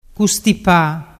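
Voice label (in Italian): "custipa", Vernazzese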